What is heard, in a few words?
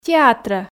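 "театрӧ", Komi, female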